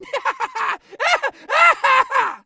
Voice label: scav mutter, voice; Escape from Tarkov; scav2